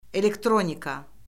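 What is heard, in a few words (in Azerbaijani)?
"elektronika", Azerbaijani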